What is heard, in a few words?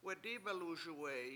"wat7dIbeluSuwE7i", Washo; before noon